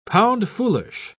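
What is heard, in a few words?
"pound-foolish", British English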